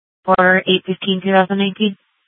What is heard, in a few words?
Gilbert Police Dispatch; 1 Dispatch